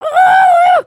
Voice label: volunteer, emotes